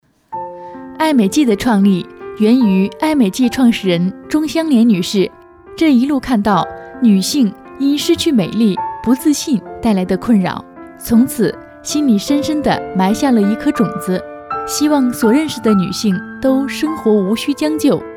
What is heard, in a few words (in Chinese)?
女; 爱美计